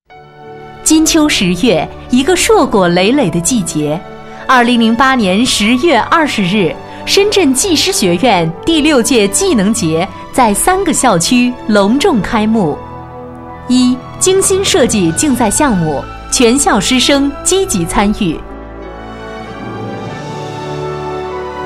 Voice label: 专题, 国语女声配音; 深圳技师学校

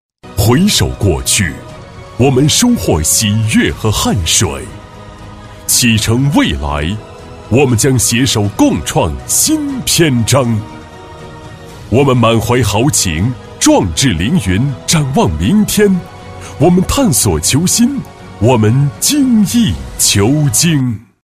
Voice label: 男 企业开场, 国语男声配音 大气

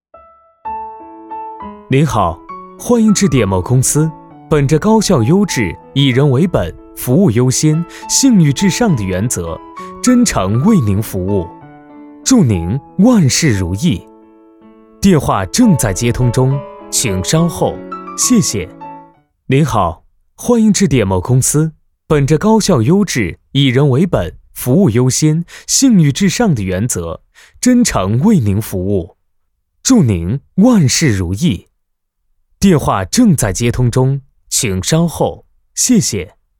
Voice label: 男 彩铃

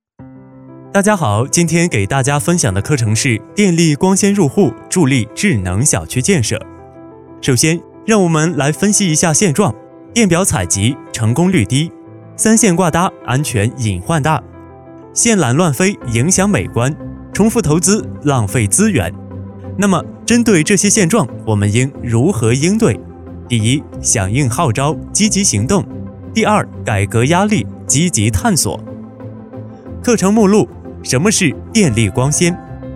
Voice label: 男 课件; 电力光纤入户

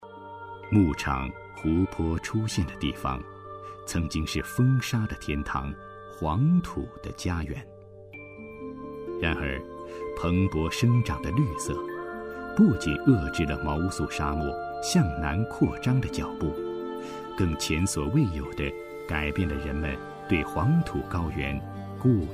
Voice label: 纪录片; 黄土沙漠

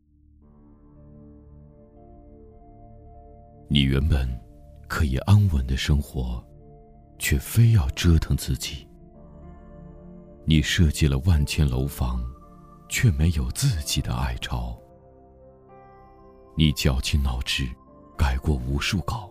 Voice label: 广告, 温婉, 设计梦想

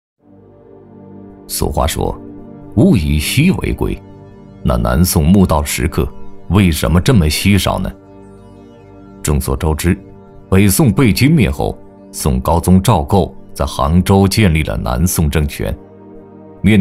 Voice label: 男 旅游专题 神秘 磁性 类似探索发现; 宋黄陵; 央视老师

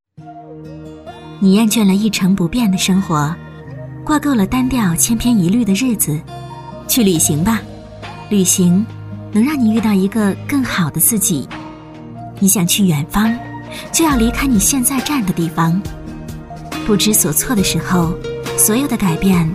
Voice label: 女 专题 旁白温情, 国语女声配音; 西康石胆油

